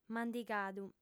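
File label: "mandigadu", female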